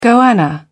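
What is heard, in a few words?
"goanna", British English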